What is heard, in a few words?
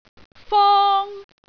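"fong1", Cantonese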